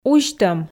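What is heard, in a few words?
"узьтӧм", Komi, female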